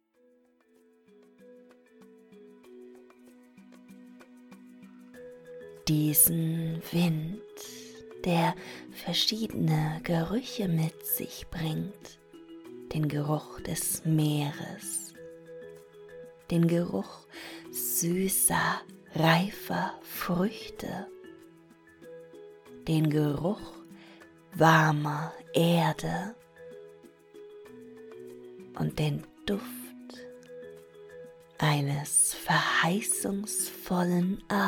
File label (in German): erotische Hypnose; Port-au-Prince; der Voodoo Zauber; Ohrgasmus